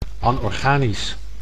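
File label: "anorganisch", Dutch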